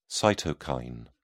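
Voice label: "cytokine", British English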